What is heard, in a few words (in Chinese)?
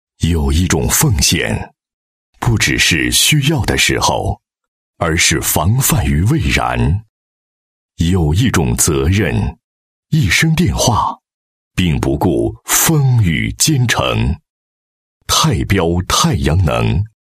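品牌广告太阳能; 品牌广告-太标太阳能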